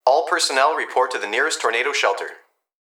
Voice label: Emergency Human Young Male Voice Plant Security Dispatch All Personnel Report To The; Nearest Tornado